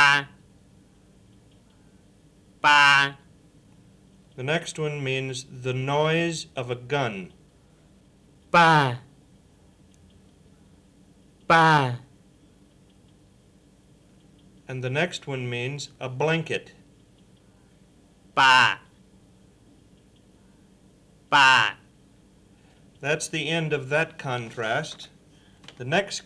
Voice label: White Miao Phonation Types and Tone Contrasts